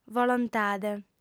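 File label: "volontade", Sardinian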